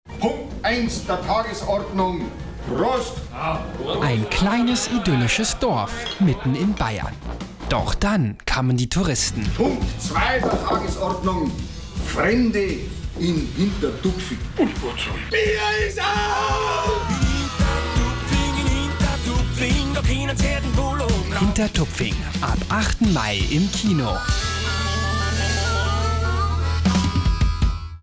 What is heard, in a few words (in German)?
radiospot